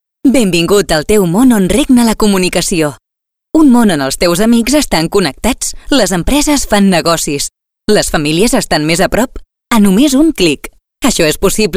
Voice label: female Sp; Spain